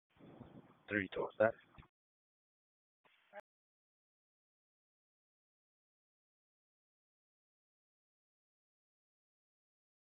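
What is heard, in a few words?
Police Northeast District Dispatch, p25; Raleigh Police Northeast District Disp; wake p25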